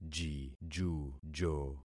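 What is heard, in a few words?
"jijujo", concatenated syllables; Affixing experiment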